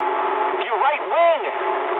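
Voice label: English right wing mood med; speech; War Thunder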